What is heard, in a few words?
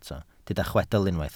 "chwedl", Welsh